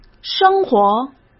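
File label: "生活", Mandarin Chinese; hsk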